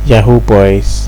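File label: "yahoo boys", Nigerian Pidgin, male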